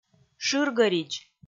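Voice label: "шыргӧридз", Komi, female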